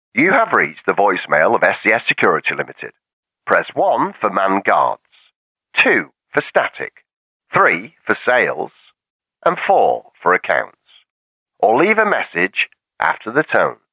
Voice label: telephone

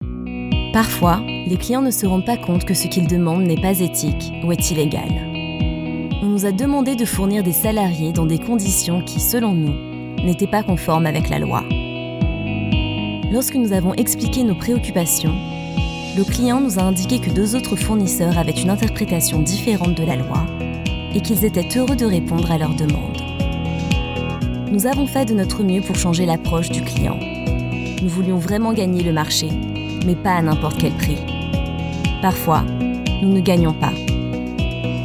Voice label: French female, corporate

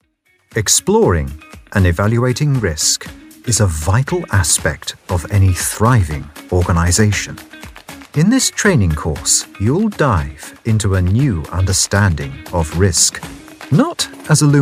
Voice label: elearning

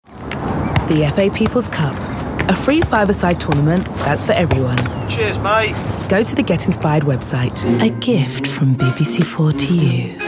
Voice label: promos, Black British Female Voiceover Artist; bbc

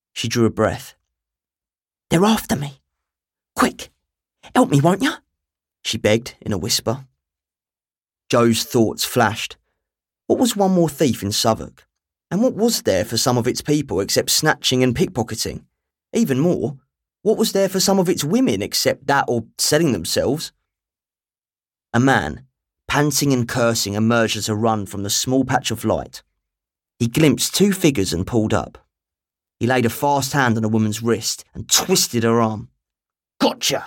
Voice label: audiobook, Essex Voiceover

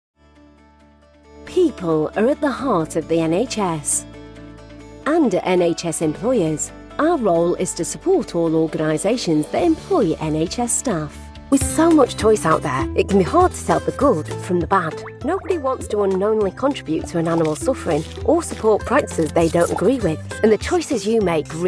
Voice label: corporate

